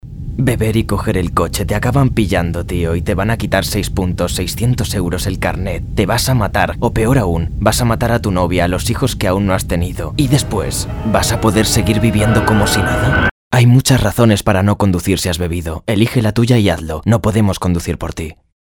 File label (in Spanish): tono serio, locucion